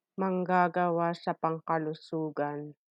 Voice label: Tagalog